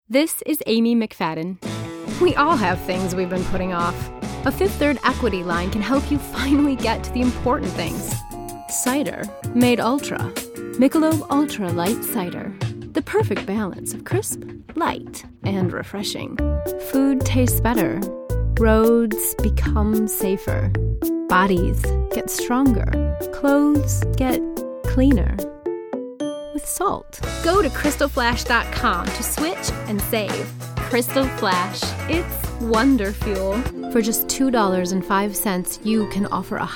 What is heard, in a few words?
Commercial Voice